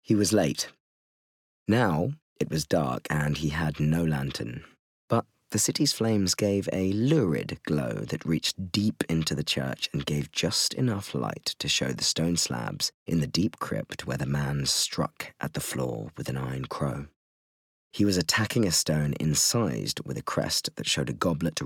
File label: Bookread